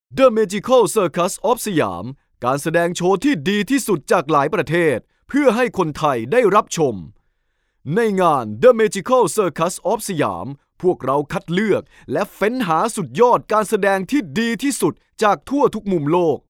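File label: Thai Thailand male sales; thai voice over talent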